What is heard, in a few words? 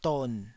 "don3", taiwanese syllables